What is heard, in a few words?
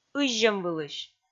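"ыззьӧмвылысь", Komi, female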